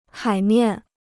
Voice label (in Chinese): "海面", Chinese